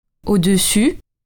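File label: "au-dessus", French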